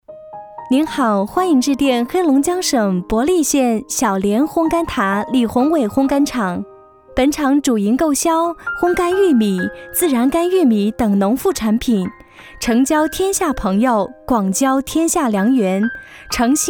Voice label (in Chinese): 女 烘干厂（温柔亲切）